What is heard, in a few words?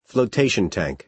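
"flotation tank", American English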